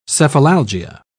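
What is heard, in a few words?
"cephalalgia", British English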